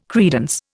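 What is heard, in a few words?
"credence", Indian English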